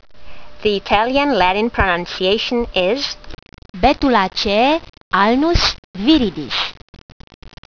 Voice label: latin; Alnus viridis ssp. sinuata